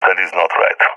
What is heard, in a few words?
disagree, balkan; balkan epic